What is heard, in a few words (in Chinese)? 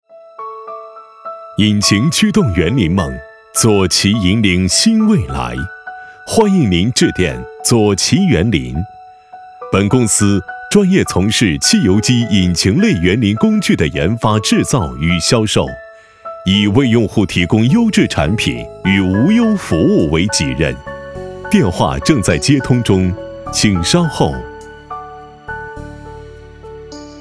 男 彩铃; 园林